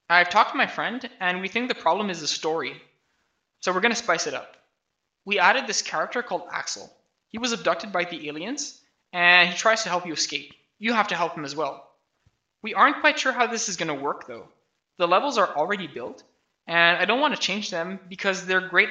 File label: voice, narration